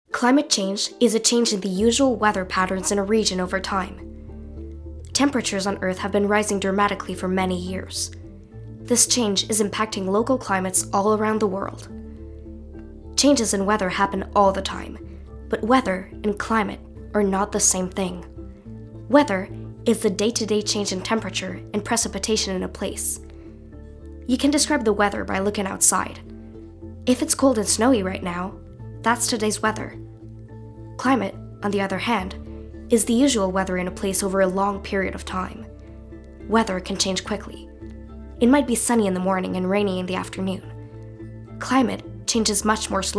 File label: Narration